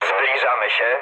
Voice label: near waypoint mood med, speech pol; War Thunder

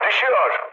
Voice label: aircraft destroyed, mood med, speech tur

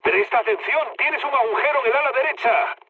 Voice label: right wing, mood med, speech; War Thunder

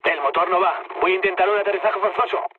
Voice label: damaged engine, mood low, speech; War Thunder

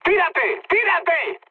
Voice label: bailout, mood high, speech; War Thunder

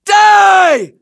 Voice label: Elder Charge, english voiceovers

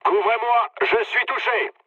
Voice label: aircraft damaged mood low, speech fr; War Thunder

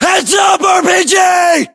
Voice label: threat rpg, US

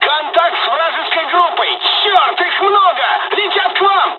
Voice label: dialog, dialogs russian; War Thunder

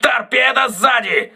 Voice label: torpedo rear, crew ships russian

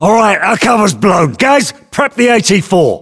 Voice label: voiceovers, US; english

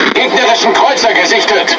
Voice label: Speech Detection Enemy Cruiser, DE; World Of Warships

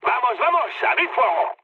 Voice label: attack position, mood med, speech; War Thunder

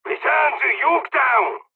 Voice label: dialogs hungarian; War Thunder

